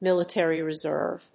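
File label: "military reserve", English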